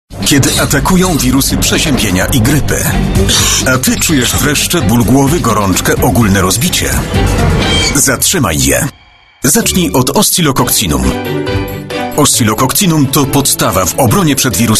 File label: COMMERCIAL